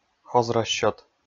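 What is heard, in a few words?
"хозрасчет", Komi, male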